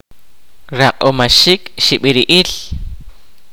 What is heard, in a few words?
kaqchikel; To cure of fright related illness